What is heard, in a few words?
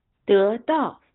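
"得到", Chinese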